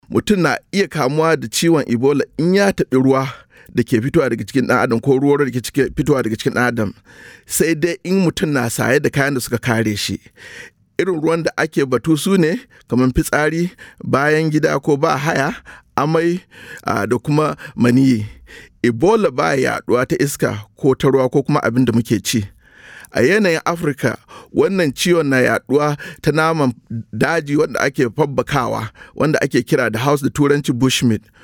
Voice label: Hausa Message, radio spots; PSA; ebola; vhf